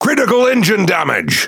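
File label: Pirate Speech Engine Damaged, English pirate; defective modules; World Of Warships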